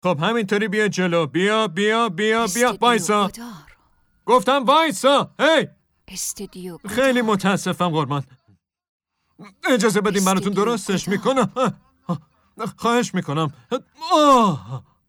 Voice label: dub